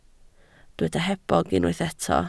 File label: "hebog", Welsh